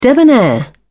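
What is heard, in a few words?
"debonair", British English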